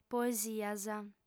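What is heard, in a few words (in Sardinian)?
"poesias", Sardinian, female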